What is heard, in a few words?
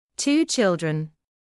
"two children", English